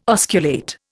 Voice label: "osculate", Indian